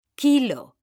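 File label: "kilo", Spanish, female; mekanta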